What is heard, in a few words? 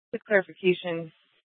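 Police Department North Dispatch, scanner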